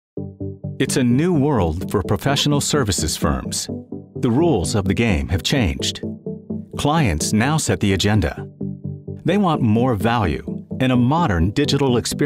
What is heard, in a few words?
voice Narration, male